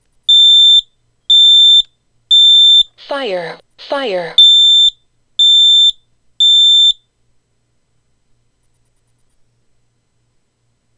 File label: combo voice; Combination smoke/carbon monoxide alarm with voice command; The alarm’s voice may say “Fire!” or “Warning: Carbon Monoxide!” after the beep; fire safety